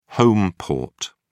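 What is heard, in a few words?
"home port", British English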